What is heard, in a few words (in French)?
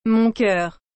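"mon coeur", French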